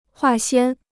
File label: "化纤", Chinese